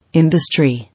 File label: "Industry", English